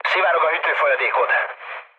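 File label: Hungarian damaged water mood low, speech; War Thunder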